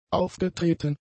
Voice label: "aufgetreten", German; adjectives